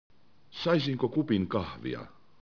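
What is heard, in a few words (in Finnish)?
"kahvi", Finnish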